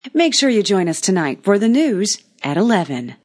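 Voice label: News Female Join Us Tonight, A female news anchor says; Talking; News Female Ad; Voice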